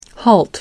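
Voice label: "halt", English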